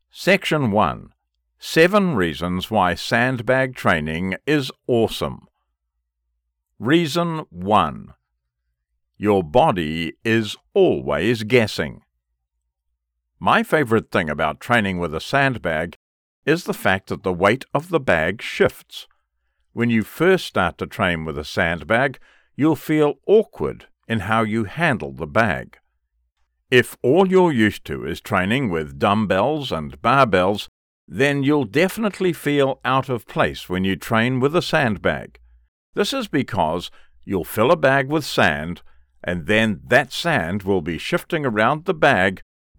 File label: english mid atlantic narration